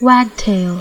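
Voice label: "wagtail", American English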